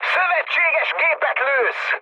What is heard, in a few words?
player friendly fire, mood high, speech hun; War Thunder